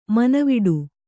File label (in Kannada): "ಮೌನವಡು", Kannada